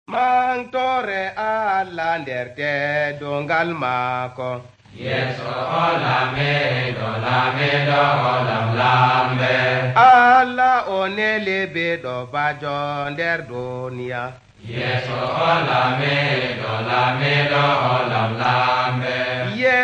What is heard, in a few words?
words of life adamawa fulfulde, drama